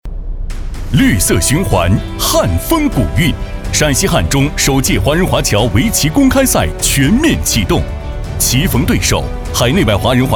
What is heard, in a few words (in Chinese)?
男 宣传片 配乐; 汉中